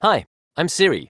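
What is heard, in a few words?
South African English; iOS Sounds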